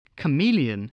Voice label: "chameleon", English, voice